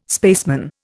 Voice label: "spaceman", Indian